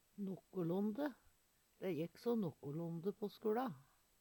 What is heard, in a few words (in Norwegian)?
"nokkolonde", Numedalsmål; Veggli